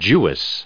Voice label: "jewess", en us

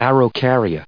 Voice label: "araucaria", us

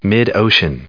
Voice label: "mid-ocean", us

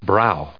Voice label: "brow", en us

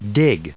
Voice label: "dig", en us

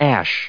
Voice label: "ashes", us

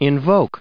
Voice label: "invoke", us

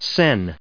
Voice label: "sen", us

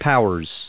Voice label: "powers", us

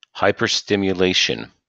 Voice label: "hyperstimulation", en us

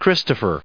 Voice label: "christopher", us

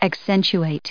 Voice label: "accentuate", us

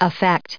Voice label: "affect", us

